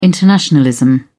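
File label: "internationalism", British English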